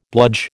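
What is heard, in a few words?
"bludge", American English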